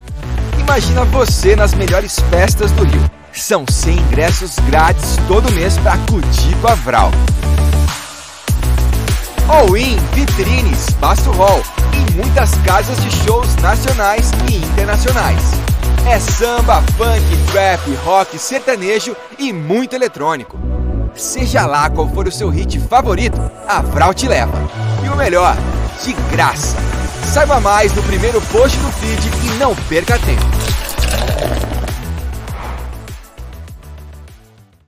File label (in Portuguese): Conversado Descontraido; Vrauu; 100 ingressos